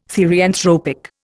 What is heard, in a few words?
"therianthropic", Indian